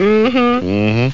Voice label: "uh-huh", speech; OctaMED SoundStudio V1